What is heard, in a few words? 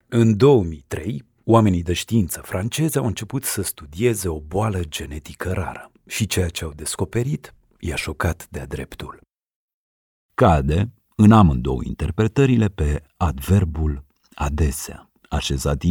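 Romanian, E-Learning; GoLocalise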